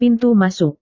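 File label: "pintu masuk", Indonesian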